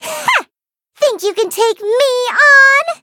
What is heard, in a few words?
eng menu tap; bacon roll; cookie run